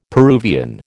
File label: "Peruvian", American